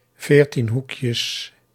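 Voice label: "veertienhoekjes", Dutch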